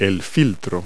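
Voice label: "filter", English word; Multi-Lingual Talking Picture Dictionary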